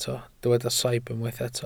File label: "saib", Welsh